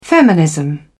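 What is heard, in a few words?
"feminism", British English, dictionary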